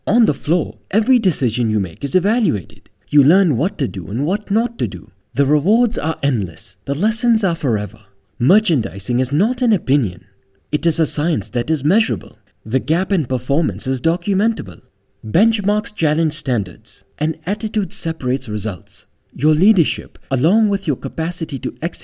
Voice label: Narration Indian Accent